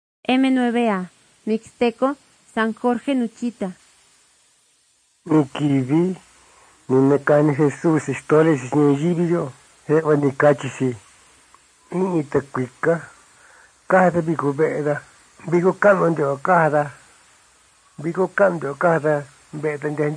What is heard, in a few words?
mixtec diagnostic spanish mexico